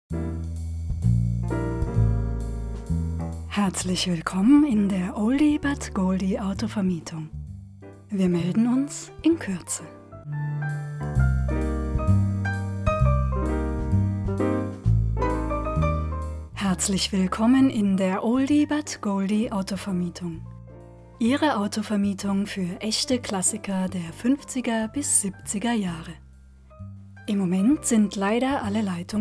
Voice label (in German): anrufbeantworter